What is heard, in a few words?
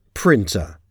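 "printer", English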